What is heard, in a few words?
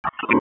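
Police District Dispatch; wake; p25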